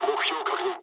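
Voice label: near waypoint mood low, speech japanese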